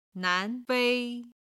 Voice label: "nan2 fei1", chinese